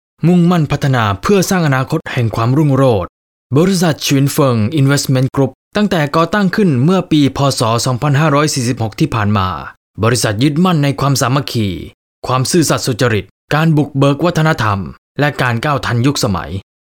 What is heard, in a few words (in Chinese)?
泰语男, 外语